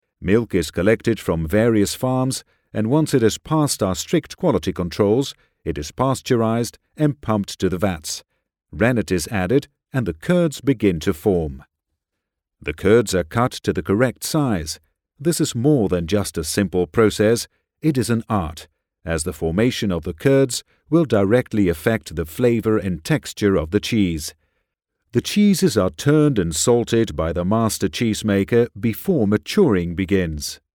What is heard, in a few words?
ENG; Valdeon